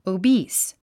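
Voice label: "obese", English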